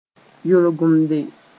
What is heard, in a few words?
"yolgemde", Mooré; faultless